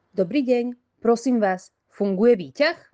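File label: "Dobrý deň, prosím vás, funguje výťah", Slovak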